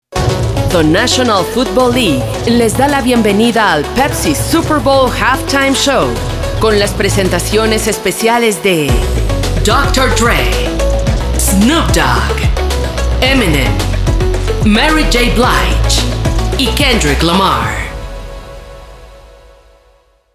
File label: TV Promo